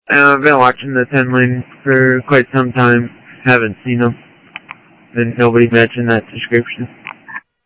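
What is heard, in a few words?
Sheriff Lake Patrol, scanner audio; MCSO Lakes 1; ThompsonPeakScot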